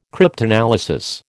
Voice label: "cryptanalysis", American